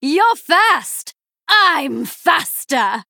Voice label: Female Night Elf Blade Dance